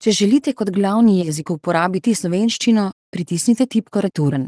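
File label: Voice Over Instructions Slovenian; Language Chooser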